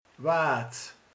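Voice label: "búza", Hungarian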